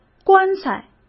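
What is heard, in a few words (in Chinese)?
"棺材", Mandarin Chinese; chinese flashcards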